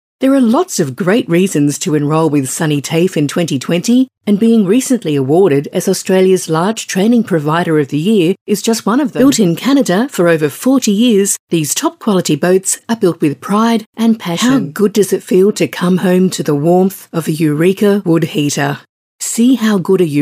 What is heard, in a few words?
Soft Sell